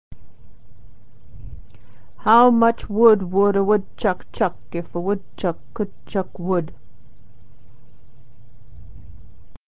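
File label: woodchuc, American English pronunciation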